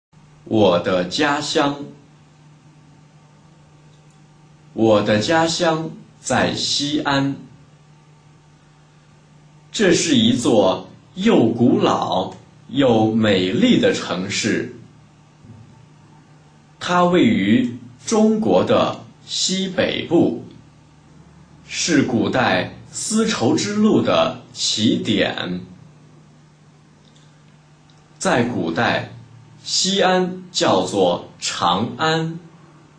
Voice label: chinese reading; my hometown